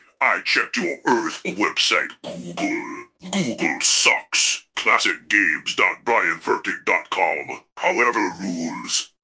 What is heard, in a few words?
happy, speech; fertig classic games